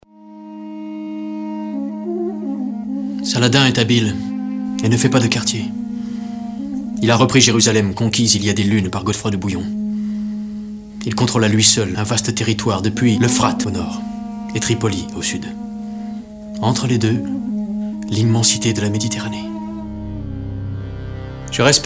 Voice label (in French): VOix Off; expo Talmont